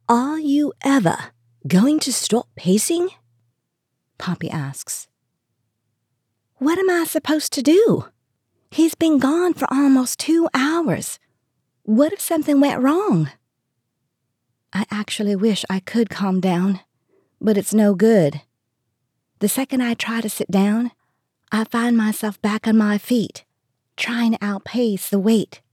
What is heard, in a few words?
Romance 1st Person British Accent Southern Accent FF Dialogue